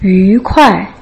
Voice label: "yu kuai", Putonghua